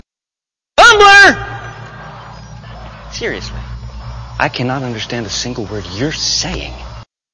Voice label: Mumbler

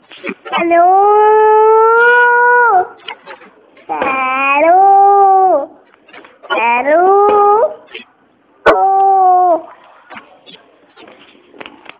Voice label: Baby Hello

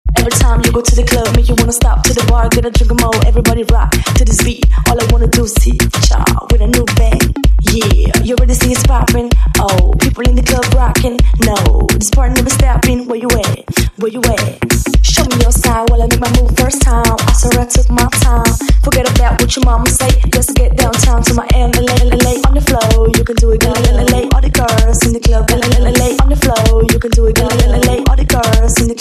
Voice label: Voice Cool

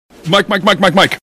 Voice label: Loud Mike Mike Mike

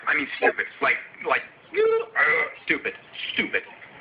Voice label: Eureka Stupid

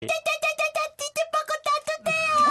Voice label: aegyo

